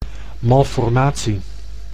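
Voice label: "malformatie", Dutch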